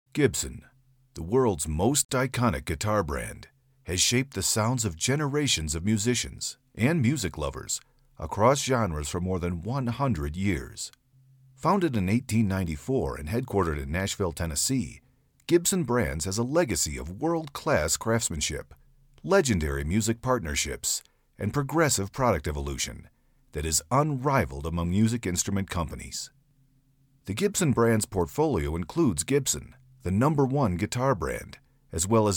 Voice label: voiceover; GIBSON GUITARS